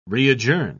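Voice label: "readjourn", British English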